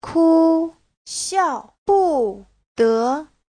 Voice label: "ku1xiao4bu4de2", chinese; 哭笑不得 : dở khóc dở cười

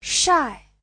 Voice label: "shai4", Chinese; Giáo trình Hán ngữ

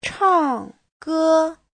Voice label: "chang4ge1", Chinese

